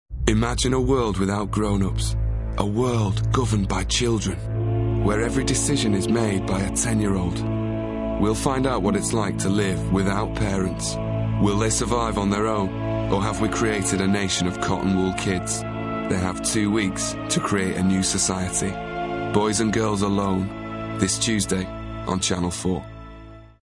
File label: PROMO EMOTIVE AND THOUGHT PROVOKING; BOYS AND GIRLS